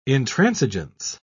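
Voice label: "intransigence", English, uk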